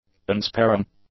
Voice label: "unsparing", english; adjectives